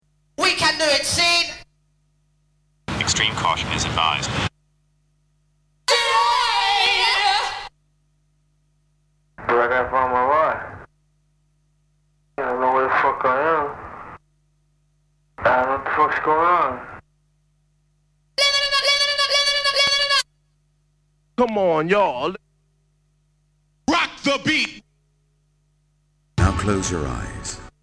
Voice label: Vocal Drops; Kniteforce; 1000 Samples Volume 1

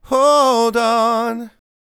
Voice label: "Hold On", vocal phrases; Ultimate Vocals

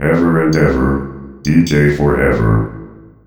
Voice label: DJ 4 EVER, Funky Vocals; USB Soundscan vol.18; AKAI; COMPUTER

